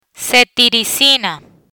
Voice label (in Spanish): "Cetirizina", Spanish; medical spanish antihistamines; learn spanish